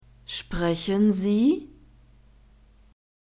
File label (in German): sprechen sie, German